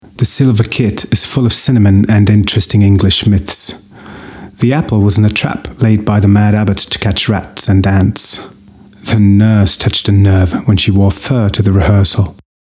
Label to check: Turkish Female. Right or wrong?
Wrong